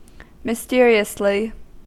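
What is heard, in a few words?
"mysteriously", English, American accent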